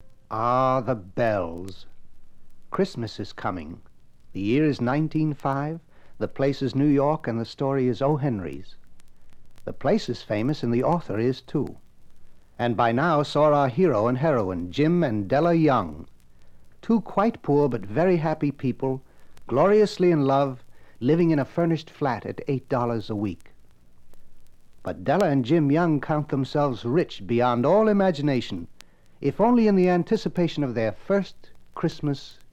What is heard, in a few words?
Narration